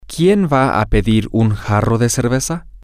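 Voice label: who is going to ask for a pitcher of beer, Spanish, female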